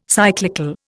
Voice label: "cyclical", Indian